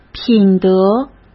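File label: "品德", Mandarin Chinese; chinese flashcards; hsk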